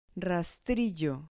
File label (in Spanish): "rastrillo", Spanish